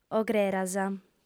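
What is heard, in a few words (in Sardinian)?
"ogreras", female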